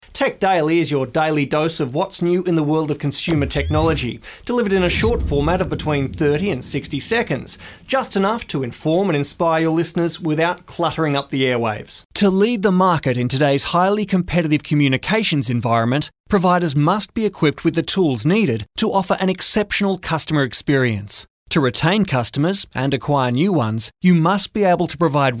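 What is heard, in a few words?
English Australian, narration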